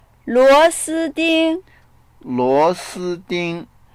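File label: "luo2si1di1ng", Mandarin Chinese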